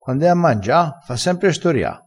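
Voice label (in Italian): "fa storia", vocabolario dialettale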